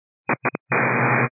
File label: 122 725MHz, scanner radio